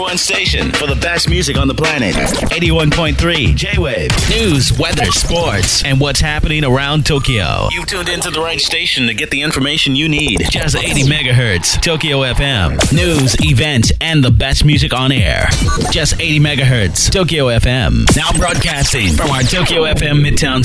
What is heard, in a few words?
Radio Imaging Japan